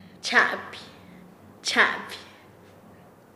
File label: Nasa Yuwe; chamiza; paez